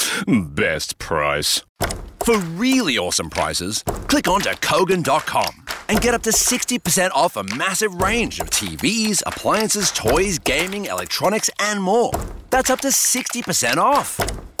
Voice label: Australia English, Christmas Commercials; Christmas Commercials 2023; Kogan